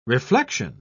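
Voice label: "reflexion", English, uk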